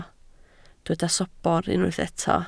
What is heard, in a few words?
"sobr", Welsh